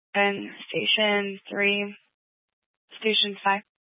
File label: Fire Dispatch